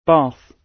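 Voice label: "bath", British English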